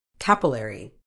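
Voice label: "capillary", British English; kəˈpɪl.ər.iː; kuh-PIL-uhr-ee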